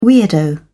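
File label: "weirdo", British English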